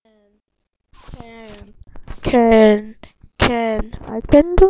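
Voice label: "can", spoken word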